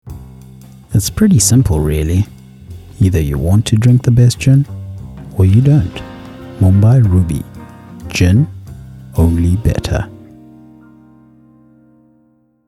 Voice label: English; Mumbai Ruby